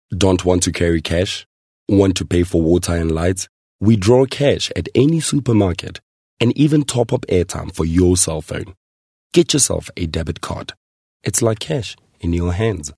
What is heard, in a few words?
English corporate